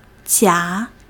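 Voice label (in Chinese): "jia", Chinese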